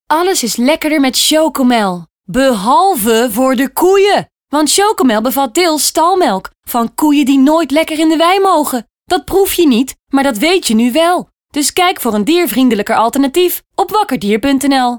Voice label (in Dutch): radiospot; stalmelk; chocomel